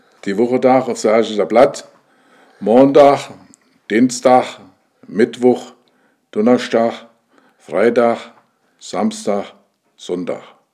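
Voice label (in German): wochentage, Hunsrück; Sargenroth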